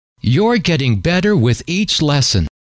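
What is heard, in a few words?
you're getting better with each lesson, male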